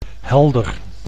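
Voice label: "helder", Dutch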